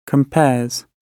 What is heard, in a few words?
"compares", gb